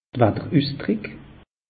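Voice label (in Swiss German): "watterusdrick", Alsatian; meteo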